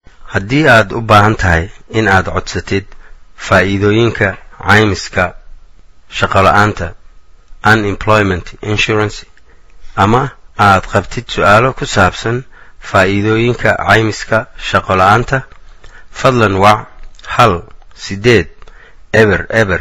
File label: somali